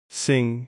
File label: "sing", English